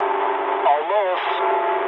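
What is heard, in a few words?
English bomb failure mood low; War Thunder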